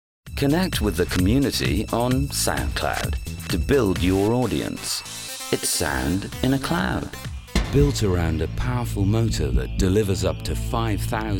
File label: Commercial